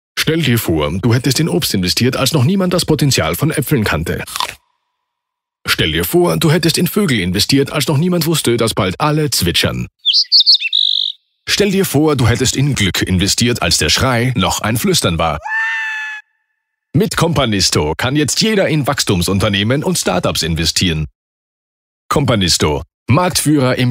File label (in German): werbung, de-at